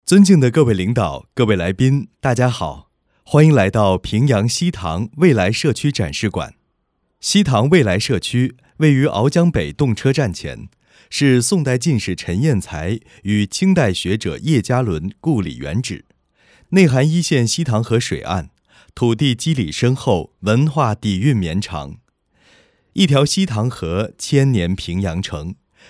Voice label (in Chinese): 专题展示馆解说干声